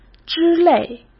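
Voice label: "之类", Mandarin Chinese; chinese-flashcards; hsk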